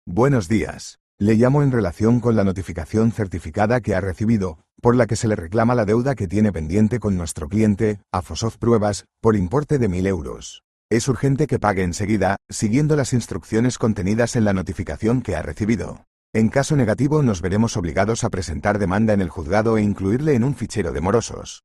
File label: audio call